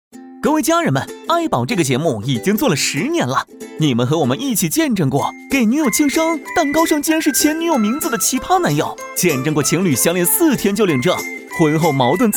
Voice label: 男 MG 幽默欢快

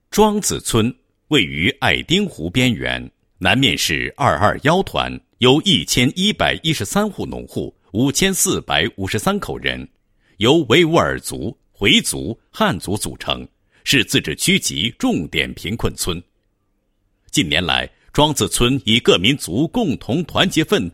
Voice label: 男, 专题片; 庄子村民族团结专题片

